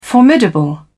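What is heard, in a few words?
"formidable", English, British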